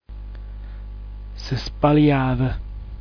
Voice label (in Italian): sspaljav, dialetto giulianova